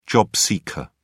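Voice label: "job seeker", British English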